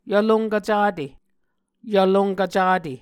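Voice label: "yvlonkv cate", Muscogee words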